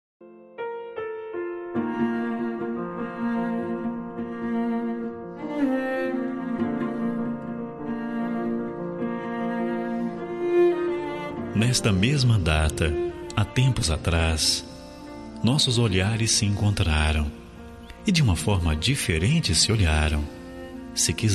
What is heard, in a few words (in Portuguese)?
namoro, Voz Masculina, Telemensagem; Aniversário de Namoro; Telemensagens para todas as ocasiões